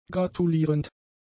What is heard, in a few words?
"gratulierend", German; adjectives